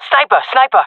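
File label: sees sniper, female voice; player vo; Counter Strike Model Pack; CS:GO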